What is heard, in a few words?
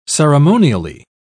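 "ceremonially", British English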